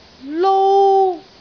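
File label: "lou1", Cantonese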